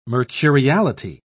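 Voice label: "mercuriality", British English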